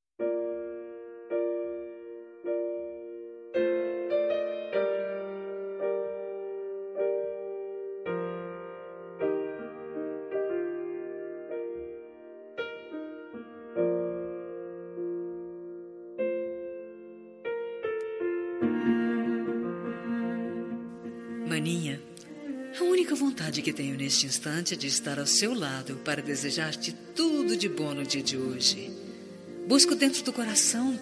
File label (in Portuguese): Telemensagem de Aniversário de Irmã – Voz Feminina